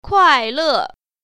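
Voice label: "kuai4le4", Mandarin Chinese Tone Pair Drills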